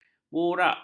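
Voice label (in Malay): "buraq", Malay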